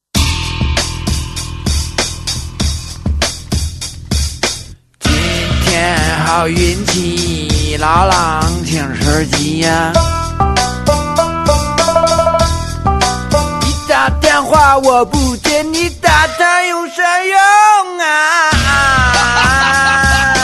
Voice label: attention passengers funny mobile tone ringtone